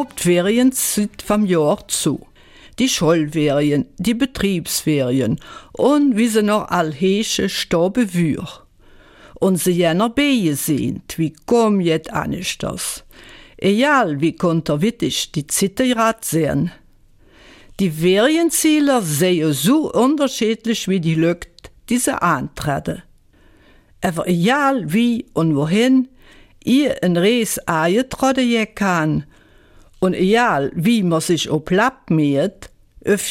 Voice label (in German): Eifeler Mundart